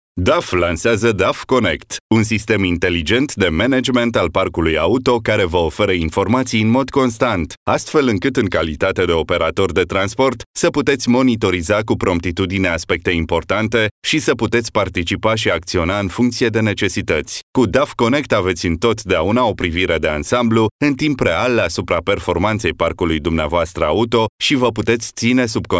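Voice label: Romanian male narration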